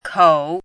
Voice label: "kou3", pinyin